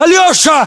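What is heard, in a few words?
"alyosha", battlechatter, Russian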